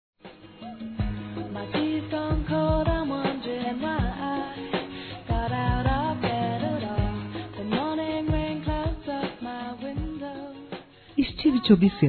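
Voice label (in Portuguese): GESTANTE, Feminino; Telemensagens; Românticas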